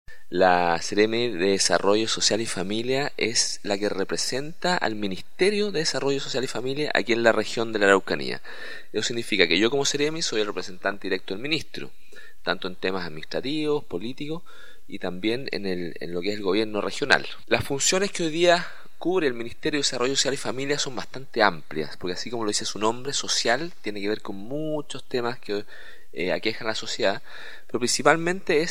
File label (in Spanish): Cuña